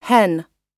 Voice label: "hen", pronunciation

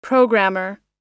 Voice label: "programmer", us

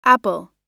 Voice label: "apple", us

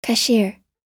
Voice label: "cashier", us

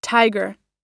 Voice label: "tiger", pronunciation

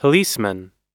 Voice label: "policeman", us

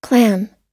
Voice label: "clam", us

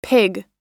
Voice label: "pig", pronunciation

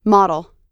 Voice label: "model", us

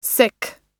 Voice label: "sick", pronunciation

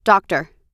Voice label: "doctor", us

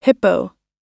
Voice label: "hippo", pronunciation

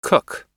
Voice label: "cook", us

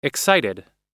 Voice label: "excited", pronunciation

